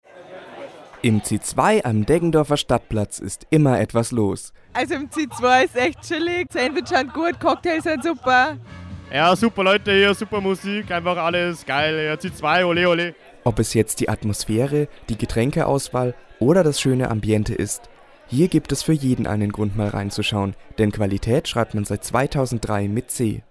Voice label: Werbung

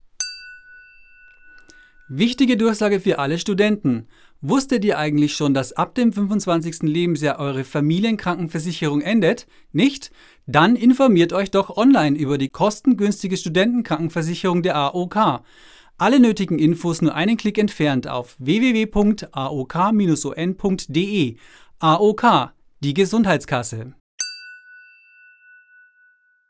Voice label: Werbung; AOK

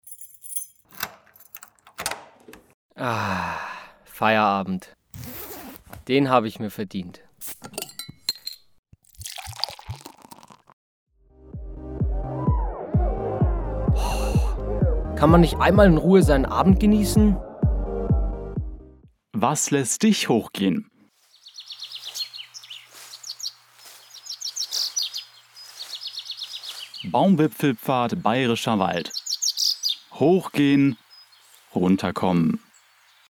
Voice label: Werbung; Erlebnis Akademie

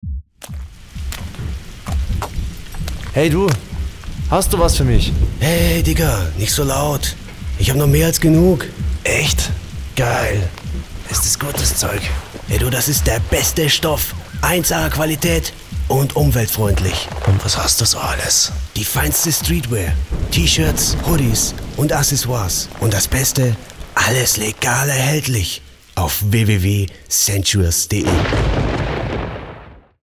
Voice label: Werbung; Sentshuas

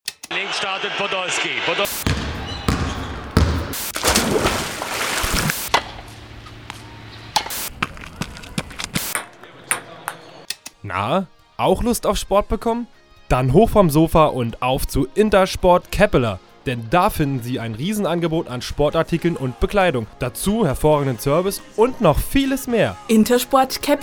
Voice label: Werbung

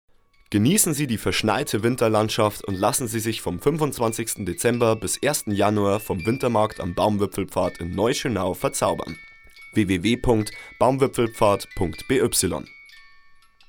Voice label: Werbung Reminder Weihnachten; Erlebnis Akademie

